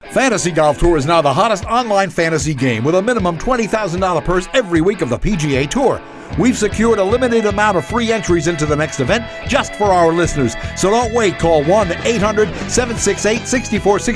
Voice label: radio; fantasy golf tour